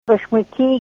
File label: shoes, russian